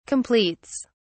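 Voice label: "completes", British English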